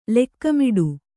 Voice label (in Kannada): "ಲೋಕಮೂಢ", Kannada